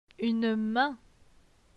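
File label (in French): "Une main", French; phonetique; les voyelles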